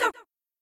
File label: buncha books, girls; cheerReaders; LetsGoRead